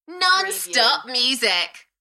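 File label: UK female, Enthusiastic, DJ vocals; beanstalk-audio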